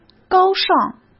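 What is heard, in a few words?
"高尚", Mandarin Chinese; chinese flashcards; hsk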